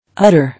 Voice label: "Udder", English